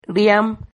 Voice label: "riam", Silabas

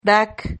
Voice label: "rak", Silabas

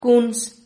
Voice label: "cuns", Silabas

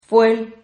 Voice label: "fuel", Silabas

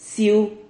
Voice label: "ciu", Silabas

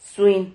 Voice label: "zui", Silabas

